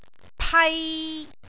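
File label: "pai3", Cantonese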